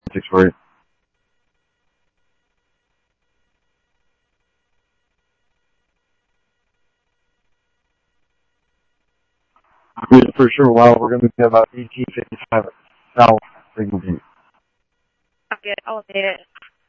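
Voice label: Simulcast police department, scanner audio trunk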